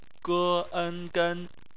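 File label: "gen" bopomofo, Chinese; phonetics; bpmf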